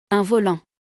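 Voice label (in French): "Un volant", French; vocabulaire; le lexique de l'informatique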